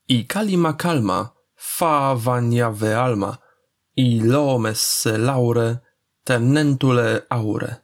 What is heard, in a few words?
abc calma slow